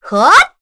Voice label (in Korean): Vox Casting, kr; Veronica; kingsraid; voices heroes